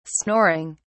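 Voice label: "snoring", British English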